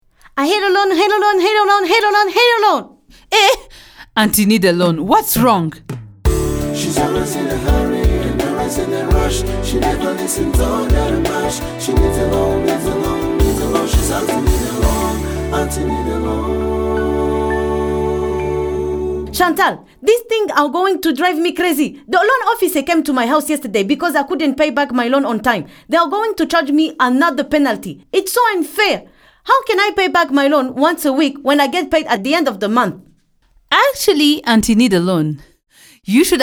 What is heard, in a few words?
Public Service Announcement, English; FinLit